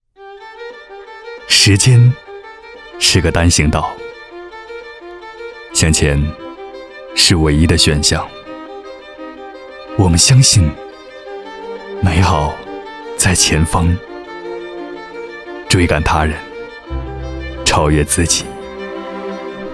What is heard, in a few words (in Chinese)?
男 广州（品质感）